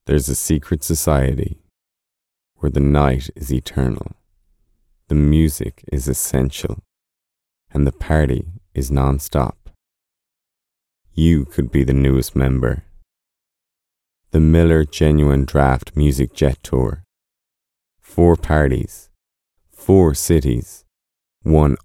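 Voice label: Irish, accented English; voice demos; GoLocalise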